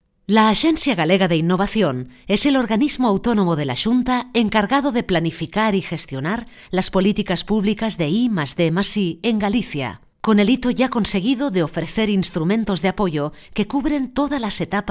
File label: locutora spanish voiceover